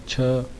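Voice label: "chha", consonants of the ĐevaNāgarī script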